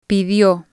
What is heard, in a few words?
"pidio", spanish